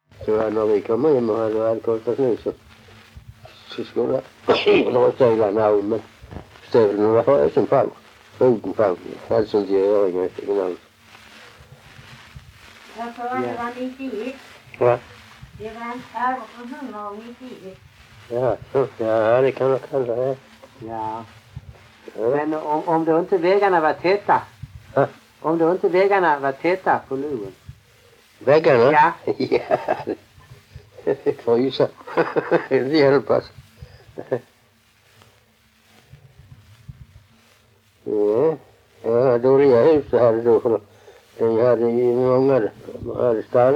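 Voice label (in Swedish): Allerum Skåne, Svenska sagor dialekter; Folkminnen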